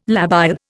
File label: "labile", Indian